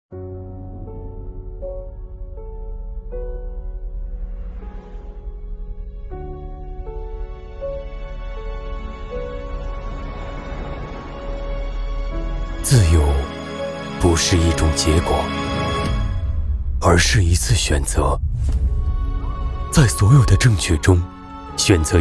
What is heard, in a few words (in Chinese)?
形象片大气科技舒缓低沉; 荣耀